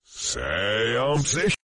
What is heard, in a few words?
male